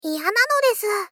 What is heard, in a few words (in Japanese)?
Moe Dislike Iya nanodesu